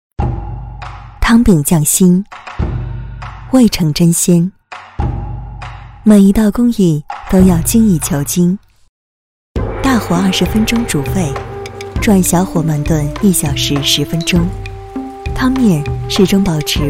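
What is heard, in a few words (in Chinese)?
品牌广告净水机; 卡萨帝净水机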